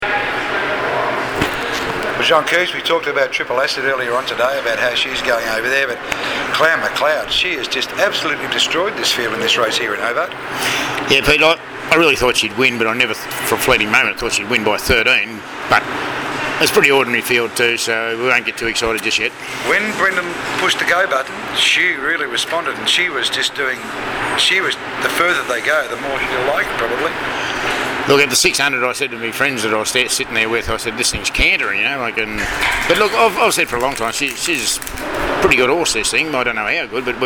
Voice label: interview